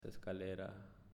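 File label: "Escalera", Spanish, male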